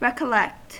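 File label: "recollect", American; pronunciations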